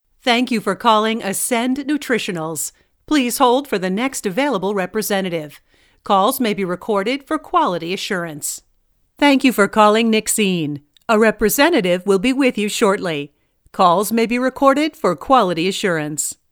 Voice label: US PHONE